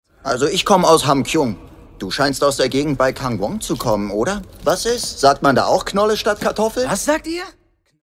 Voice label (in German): Synchro Rolle